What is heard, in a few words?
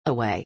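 "away", English; (副詞) 離れて、向こうに(行って); əwéi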